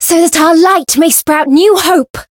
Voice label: chant